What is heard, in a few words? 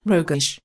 roguish